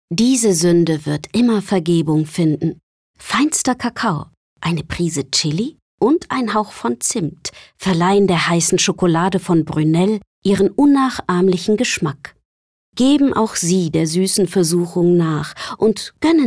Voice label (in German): W Sinnlich